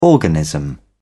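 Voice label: "organism", British English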